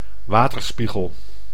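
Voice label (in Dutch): "waterspiegel", Dutch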